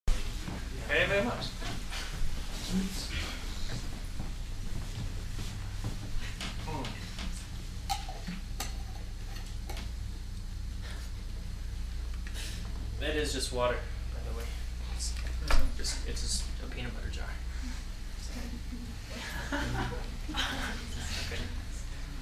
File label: talking talking talking, live